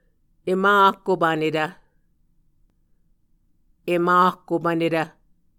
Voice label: "em akkopvnetv", Muscogee